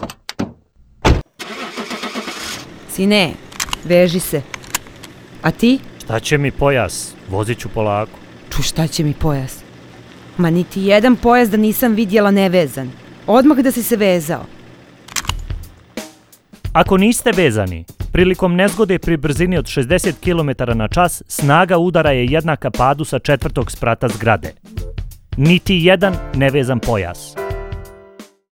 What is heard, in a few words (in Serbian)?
Радио џингл